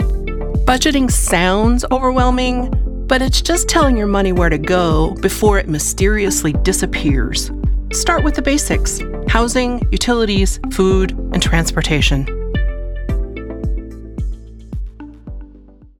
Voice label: Financial - Conversational, Relatable